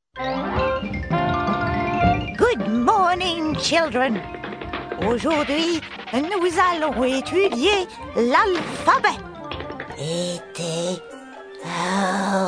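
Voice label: Old school teacher, ET, Witch, Goose, Outrageous Sexy, Cartoons; FR/GB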